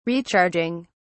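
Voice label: "recharging", British English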